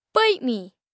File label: Bite Me, Attitude, 1 boy, 10 yrs